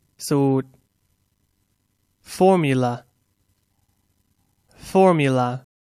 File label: "formula", English; Noun